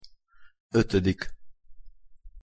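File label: "otodik", Hungarian pronunciation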